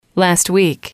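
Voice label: last week, English, female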